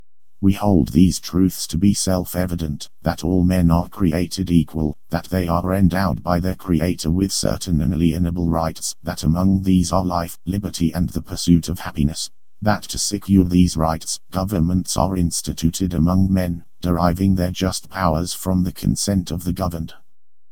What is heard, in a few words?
flite mycroft voice